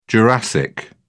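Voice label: "jurassic", British English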